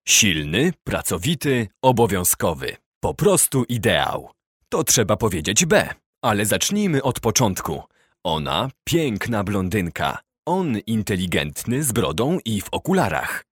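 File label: Polish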